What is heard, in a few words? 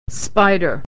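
"spider", American English; vwl ay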